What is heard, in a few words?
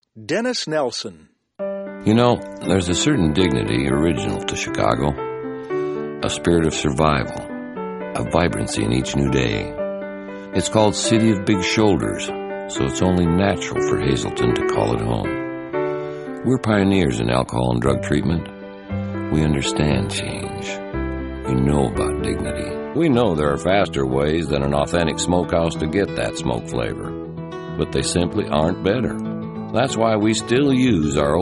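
Male Talent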